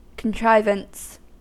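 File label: "contrivance", US English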